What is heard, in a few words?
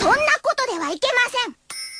Cute Voice